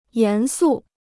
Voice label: "严肃", Chinese